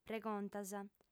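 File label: "pregontas", Sardinian, female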